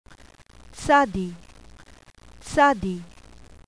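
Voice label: "tsadek", Hebrew letter; qabalah; alfabeto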